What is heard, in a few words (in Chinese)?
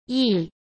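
"议", Mandarin Chinese; chinese-flashcards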